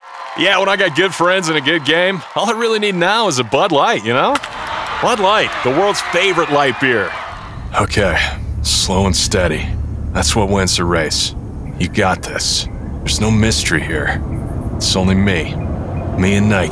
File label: Commercial